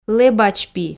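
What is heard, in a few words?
"лэбачпи" Komi, female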